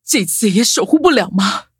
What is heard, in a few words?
defeat, zh-hant; cookierun